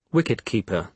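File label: "wicket keeper", British English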